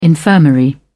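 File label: "infirmary", British English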